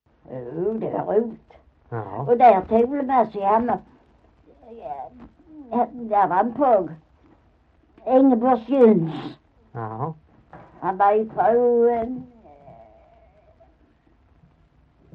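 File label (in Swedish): Maglarp Skåne, Svenska sagor dialekter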